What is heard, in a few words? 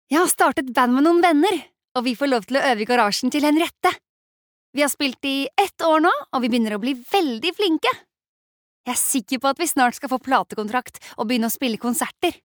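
Norwegian dub girl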